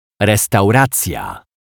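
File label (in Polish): "restauracja", Polish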